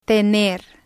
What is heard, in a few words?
toner, spanish